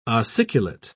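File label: "ossiculate", British English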